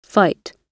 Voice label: "fight", English